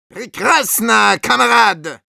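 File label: Medic good job, Russian; «Прекрасно, камрад!»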